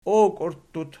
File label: "okiurttut", Archi